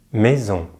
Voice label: "maison", French; easypronunciation